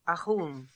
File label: "Ahoon", pronounciations; Fourth Way; Beelzebub